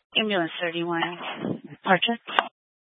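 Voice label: Fire Dispatch, Scanner audio recording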